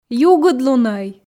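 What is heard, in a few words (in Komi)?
"югыдлунӧй", Komi, female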